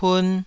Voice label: "pun2", taiwanese